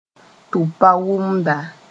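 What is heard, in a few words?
"tʋb-pa-wʋmda", Mooré; stubborn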